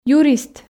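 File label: "юрист", Komi, female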